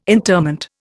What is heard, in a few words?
"interment", Indian